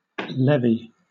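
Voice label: "levee", British English; pronunciations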